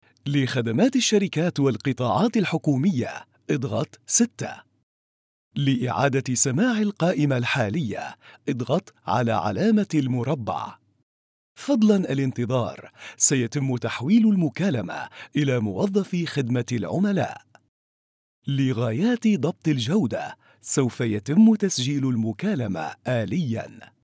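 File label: arabic interactive voice response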